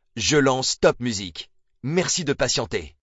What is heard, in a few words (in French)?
lancement radio; topmusic